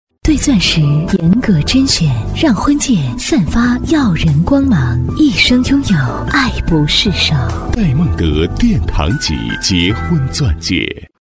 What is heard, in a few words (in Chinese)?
广告 珠宝首饰 钻戒 优雅, 女国; 戴梦得钻戒